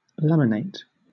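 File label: "laminate", British English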